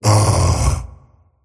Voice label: happy; dota; tiny